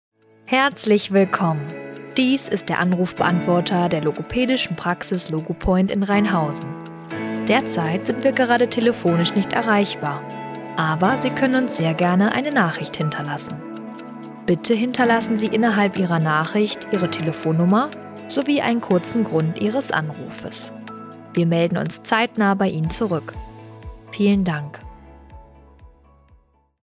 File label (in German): Telefonansage mit Musik; Logopoint